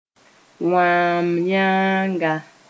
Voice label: "wãam-yãaga", Mooré; female monkey

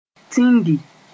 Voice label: "tĩngi", Moore; hit something

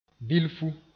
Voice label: "bilfu", moore

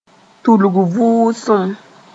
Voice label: "tulg vʋʋsem", moore

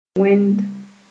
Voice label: "wẽnd", Moore

